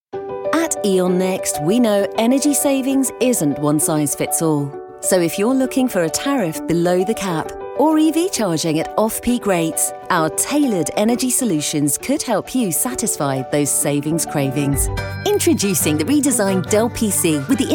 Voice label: english british commercial